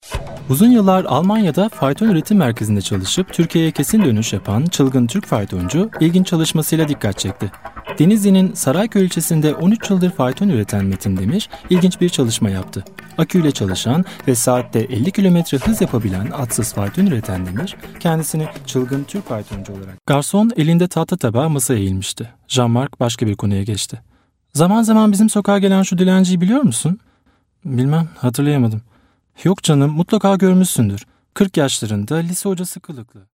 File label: Turkish